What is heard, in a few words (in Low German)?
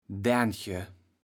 "Därnche", kaevels platt